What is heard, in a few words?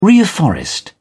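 "reafforest", British English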